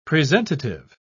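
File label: "presentative", British English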